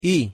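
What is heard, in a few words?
pronunciation "y", Spanish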